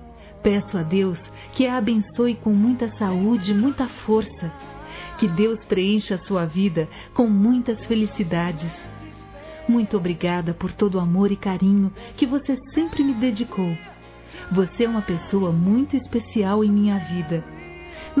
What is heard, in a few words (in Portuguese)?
PARA TIA F, Telemensagens Dia das Mães Fem; Telemensagens 2017